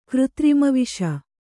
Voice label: "ಕೃತ್ರಿಮವಿಷ", Kannada